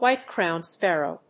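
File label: "white-crowned sparrow", English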